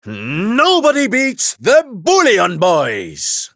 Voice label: battle roar; dqxi; sylvando